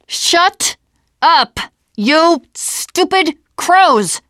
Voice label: gravel hill, teengirl

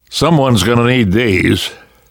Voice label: take pills, namvet, survivor voice; Left 4 Dead 2; left4dead2 dlc3

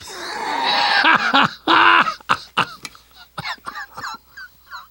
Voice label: taunt, survivor voice; namvet; Left 4 Dead 2; left4dead2 dlc2

